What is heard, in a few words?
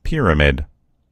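"pyramid", American English; gcompris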